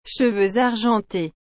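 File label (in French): "cheveux argentés", French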